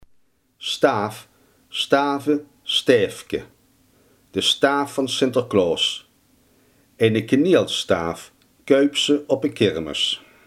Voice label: "staf", Limburgs

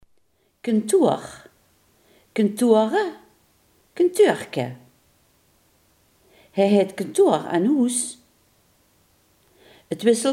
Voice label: "kantoor", Limburgs

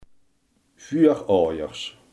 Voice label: "voorouders", limburgse

